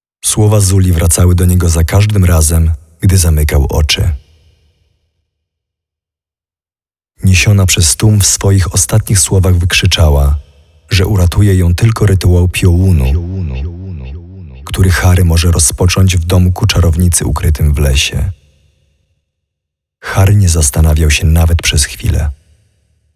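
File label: Voices VO Polish; apocalipsis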